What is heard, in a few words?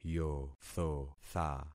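"yothotha", concatenated syllables; Affixing experiment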